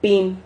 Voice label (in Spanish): "pin", Silabas